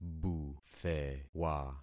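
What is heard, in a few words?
"buthewa", concatenated syllables; Affixing experiment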